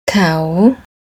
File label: "Kao", Thai; desire requesting